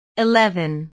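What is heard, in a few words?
"eleven", English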